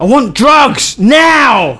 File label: Medic, male; svencoop